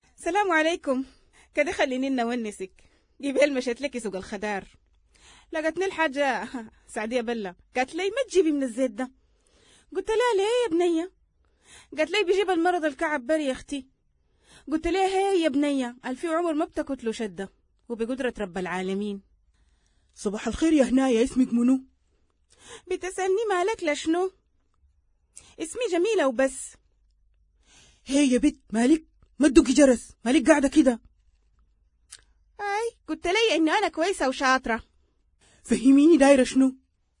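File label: Arabic Sudanese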